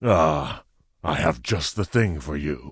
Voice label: Tauren Male Elder Vendor; NPC